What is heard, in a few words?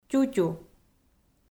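"тютю", Komi, female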